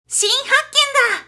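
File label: female; 新発見だ!; キュート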